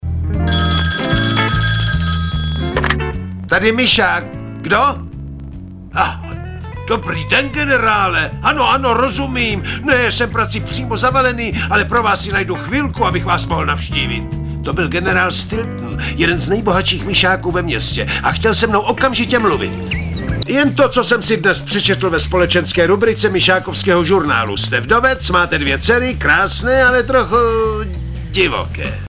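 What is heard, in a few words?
male, Czech, drama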